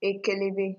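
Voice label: "ekelebe", Nigerian Pidgin, female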